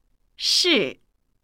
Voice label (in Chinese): "是", Chinese